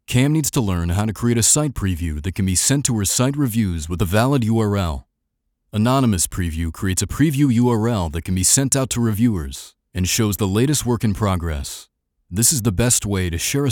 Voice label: elearning voice over sample